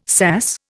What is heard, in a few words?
"sass", Indian